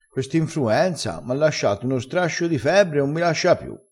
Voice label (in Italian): "strascio", crespinese